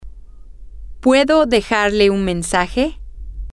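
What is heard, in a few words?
can I leave him her a message, Spanish, female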